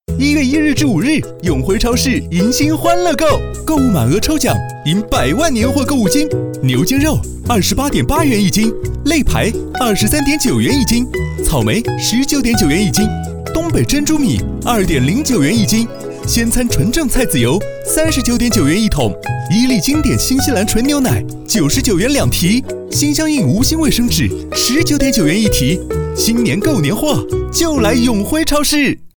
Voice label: 促销广告报菜名儿一般的促销

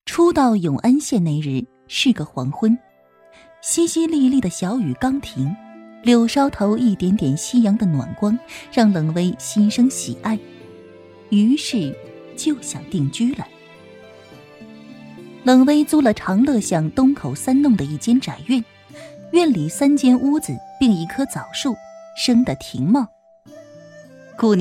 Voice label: 古代言情

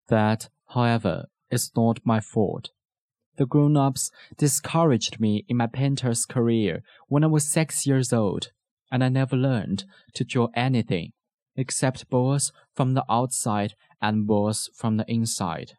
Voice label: 英文版美式; 小王子

